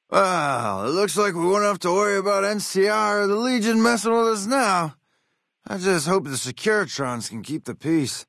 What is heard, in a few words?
male adult greeting